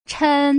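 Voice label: "chen", Mandarin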